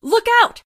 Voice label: "look out", female; Voiceover; war; Kenney's Sound Pack

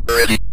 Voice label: "ready", Synth Voice; Kenney's Sound Pack; Synth Voice 1

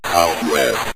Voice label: south west, synth voice; Kenney's Sound Pack

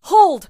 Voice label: "hold", female; Voiceover; war; Kenney's Sound Pack

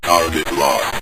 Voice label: target Lost, Synth Voice; Kenney's Sound Pack

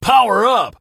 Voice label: power up, Male; Kenney's Sound Pack; Voiceover

